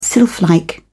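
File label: "sylphlike", British English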